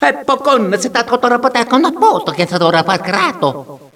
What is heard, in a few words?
talking, Echo inside caves, underground; DS; ML BOWSERSINSIDE; Mario